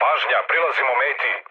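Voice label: Serbian near waypoint mood low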